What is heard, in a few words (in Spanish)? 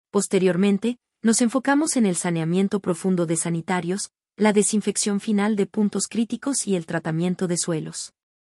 locucion